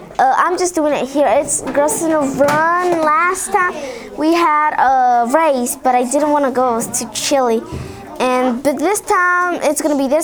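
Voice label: young Latino Hickory, Tar Heel dialects; North Carolina; quiz voices of north carolina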